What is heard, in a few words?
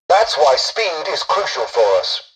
tutorial dialogue, english; War Thunder